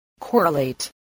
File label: "correlate", voice spelling